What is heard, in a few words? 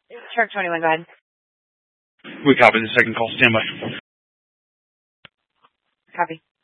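Incident Tac 1, Scanner audio recording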